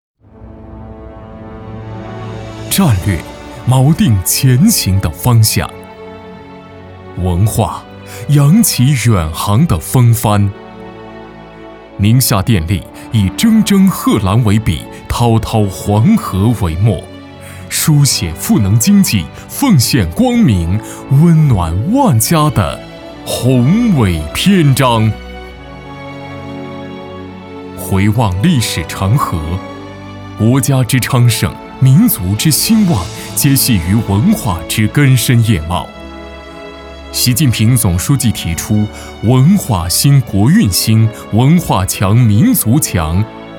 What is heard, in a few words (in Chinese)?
男, 感情饱满; 宁夏电力战略